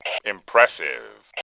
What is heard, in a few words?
"awesome", voice, Male; Tribes2